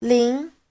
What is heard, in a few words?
"lín", Chinese